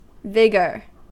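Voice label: "vigour", US English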